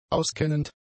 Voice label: "auskennend", German; adjectives